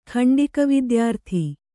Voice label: "ಖಂಡಿಕವಿದ್ಯಾರ್ಥಿ", Kannada

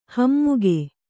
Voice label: "ಹಮ್ಮುಗೆ", kannada

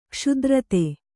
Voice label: "ಕ್ಷುಧಾರ್ತ", Kannada

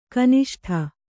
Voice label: "ಘನಿಷ್ಠ", Kannada

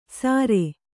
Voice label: "ಸಾರೆ", Kannada

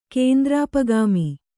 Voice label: "ಕೇಂದ್ರಾಪಗಾಮಿ", Kannada

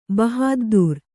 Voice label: "ಬಹಾದ್ದೂರ್", Kannada

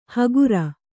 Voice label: "ಹಗುರ", Kannada

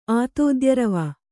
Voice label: "ಆತೋದ್ಯರವ", Kannada

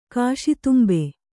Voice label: "ಕಾಶಿತುಂಬೆ", Kannada

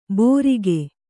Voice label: "ಬೋರಿಗೆ", Kannada

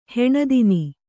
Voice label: "ಹೀನತನ", Kannada

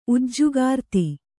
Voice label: "ಉಜ್ಜುಗಾರ್ತಿ", Kannada

